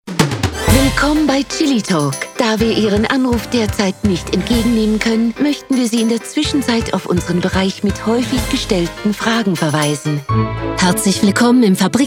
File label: german telephone; GERMAN » Upbeat and confident German voice.